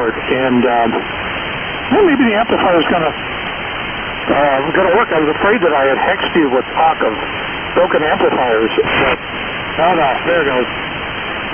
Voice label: Phasemaster 2B Altec Lansing Mic Collins 75A-3 & P&H 400C; Freeport, IL.; Laeshore Phasemaster 2B; P&H 400C Amp